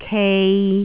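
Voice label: "kei3", Cantonese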